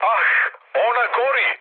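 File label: Serbian on fire mood med, speech; War Thunder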